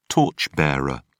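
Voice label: "torch bearer", British English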